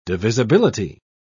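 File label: "divisibility", British English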